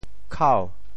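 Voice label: "khau2", Teochew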